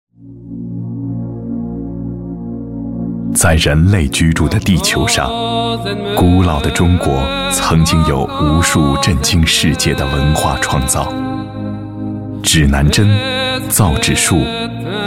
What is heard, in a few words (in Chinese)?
大气神秘风格古老的中国